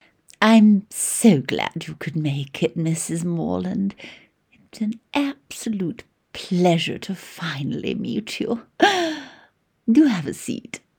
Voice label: POSH LADY, Voice Over